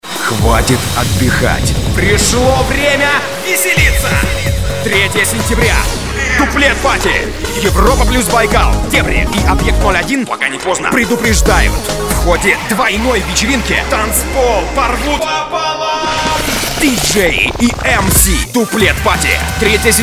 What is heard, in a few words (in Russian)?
Промо Party; 'Дуплет-Party'